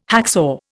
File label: "hacksaw", Indian